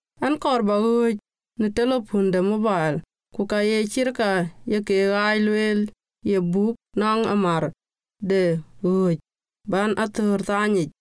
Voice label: contracts dinka